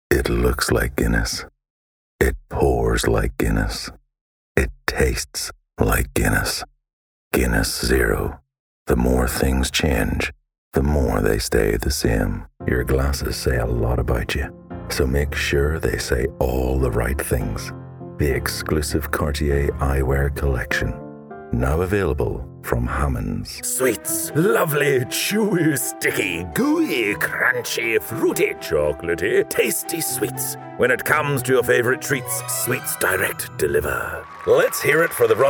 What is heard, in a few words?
Commercial Showreel